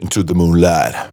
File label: Phrase Pitched; Vengeance Samples